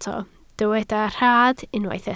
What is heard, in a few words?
"rhad", Welsh